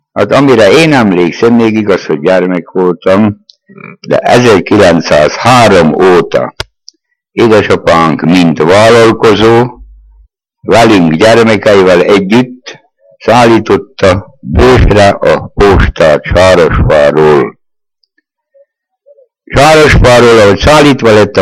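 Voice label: dialect collection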